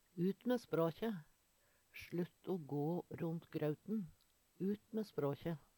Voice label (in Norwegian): "Ut mæ språkje!", Numedalsmål; Slutt o gå ront grauten! Ut mæ språkje!